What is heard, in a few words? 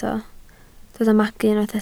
"magu", Welsh